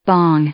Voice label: "bong", English; noun